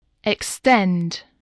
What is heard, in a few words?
"extend", voice